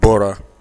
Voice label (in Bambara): "bɔra", Bambara